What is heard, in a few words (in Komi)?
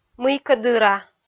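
"мыйкӧ дыра", Komi, female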